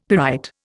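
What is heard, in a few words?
"pyrite", Indian English; Dictionary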